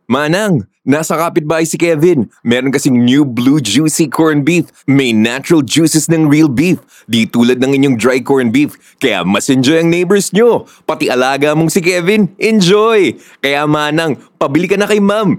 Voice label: Tagalog (High Energy)